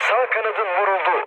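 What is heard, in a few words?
Turkish right wing, mood low, speech; War Thunder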